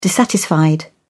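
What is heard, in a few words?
"dissatisfied", British English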